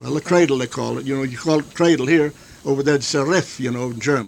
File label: Oral history with 85 year old white male Montrose Colorado, american english dialect